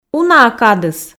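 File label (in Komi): уна-ӧ кадыс, Komi, female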